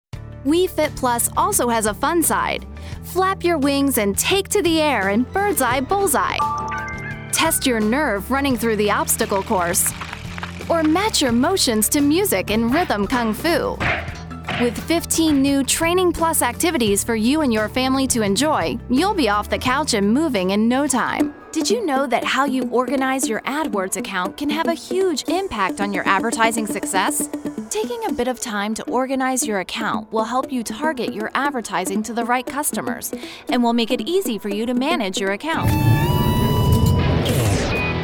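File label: American English narration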